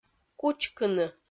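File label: "кучкыны" Komi, female; kpv audio dict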